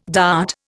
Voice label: "dart", Indian